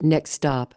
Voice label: next stop, automatic announcements; seattle; soundtransit; Link KI Fleet